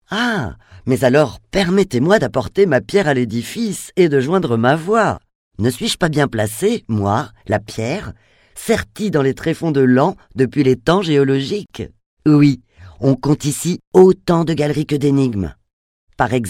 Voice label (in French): Audioguide; Musee Laon